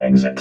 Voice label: "exit", robot vox

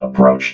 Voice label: "approached", robot vox

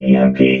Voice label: "EMP", robot vox

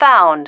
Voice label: "found", female

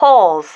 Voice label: "holes", female

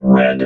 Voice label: "RED", vox robot

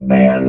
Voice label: "ban", robot vox

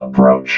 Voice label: "approach", robot vox

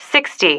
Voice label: "sixty", female

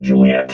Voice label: "juliet", robot vox

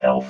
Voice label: "health", robot

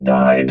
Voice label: "died", robot vox

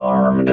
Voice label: "armed", robot vox

